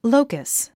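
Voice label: "locus", English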